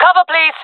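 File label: request cover me, female voice; player vo jungle fem; Counter Strike Model Pack; CS:GO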